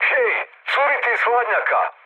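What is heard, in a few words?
Serbian damaged water mood med; speech; War Thunder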